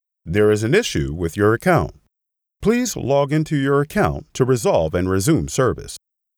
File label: IVR